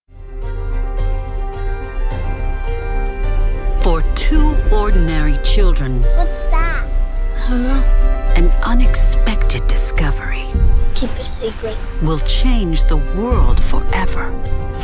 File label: Movie Trailer